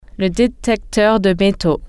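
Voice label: metal detector, french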